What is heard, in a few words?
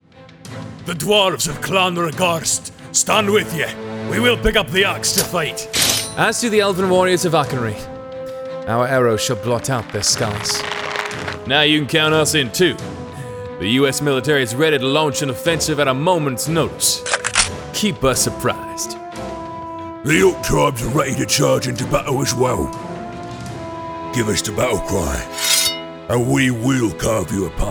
Accent; Commercial, E-Learning, Corporate Narration, IVR, Videogame